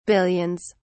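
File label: "billions", English, uk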